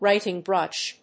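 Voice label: "writing brush", English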